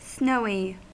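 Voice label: "snowy", American English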